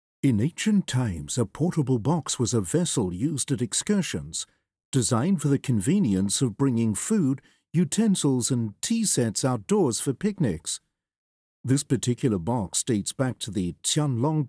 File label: ENG; audio guide